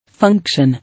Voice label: "Function", English

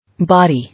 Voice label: "Body", English

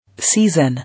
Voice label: "Season", English

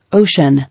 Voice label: "Ocean", English

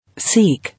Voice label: "Seek", English

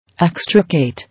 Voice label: "Extricate", English

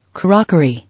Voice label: "Crockery", English

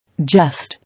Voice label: "Jest", English

